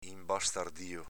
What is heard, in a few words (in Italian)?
"inbastardio", Veneto